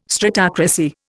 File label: "stratocracy", Indian